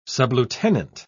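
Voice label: "sublieutenant", British English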